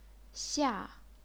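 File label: "xia", chinese pinyin, fourth tone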